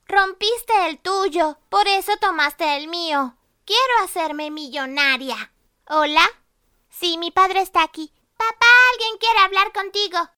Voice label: locutora nina espanol neutro spanish american voiceover; locutora infantil; Locutoras latinoamericanas